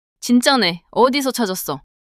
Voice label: neutral

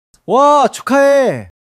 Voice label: excitement

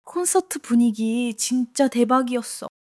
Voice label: excitement

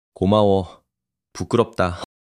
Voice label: shy

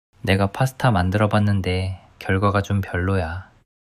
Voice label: sadness

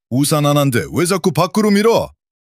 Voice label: anger

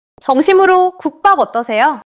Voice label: neutral

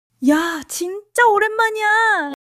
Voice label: excitement

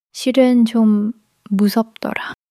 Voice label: sadness

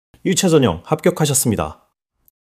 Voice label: joy

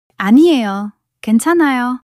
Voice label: shy

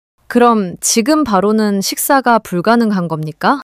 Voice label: anger

